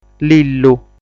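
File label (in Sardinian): "Lillu", Sardinian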